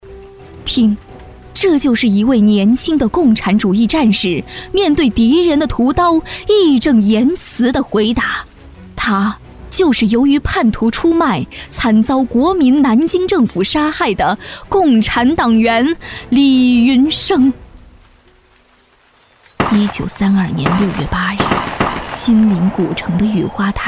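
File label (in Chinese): 广播剧旁白; 三集广播剧烈血英魂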